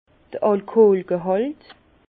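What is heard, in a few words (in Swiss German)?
"alkoolgehalt", Alsace Bas Rhin; vin; teneur alcoolique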